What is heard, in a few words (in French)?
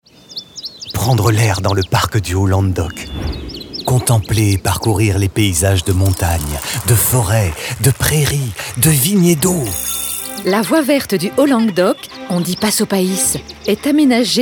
spot radio; pnr haut languedoc; fb herault du 21 au 31 mars; fb toulouse du 11 au 21 avril